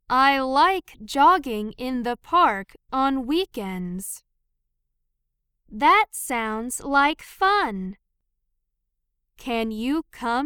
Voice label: Dialogue Slow; chikara eigo jyuku; Lesson 4